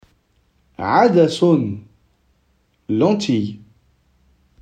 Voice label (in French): lentille en arabe